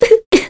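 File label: voice Sad, Korean; heroes; Scarlet; kings raid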